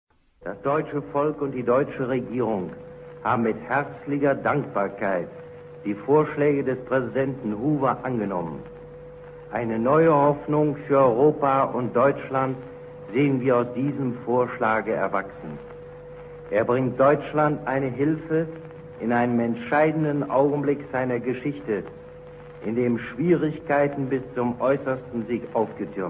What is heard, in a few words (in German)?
rundfunkrede; heinrich bruening annahme vorschlaege uspraesident hoover; 1931